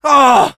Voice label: negative vocalization, fr; Scout